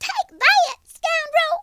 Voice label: "dragonpunch", Southern Belle; speech